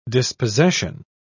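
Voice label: "dispossession", British English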